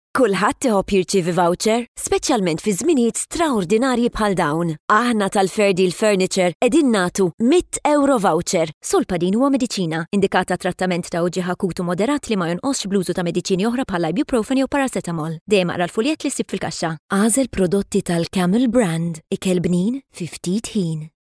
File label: maltese voiceover locutora, Locutora maltesa; Maltese female voice over